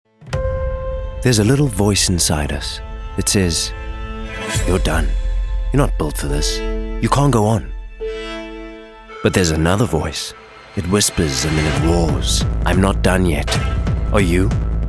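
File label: Commercial UK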